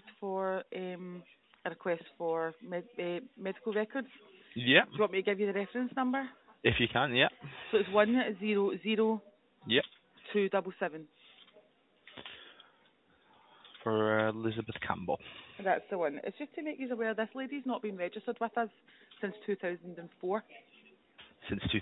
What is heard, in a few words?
call recording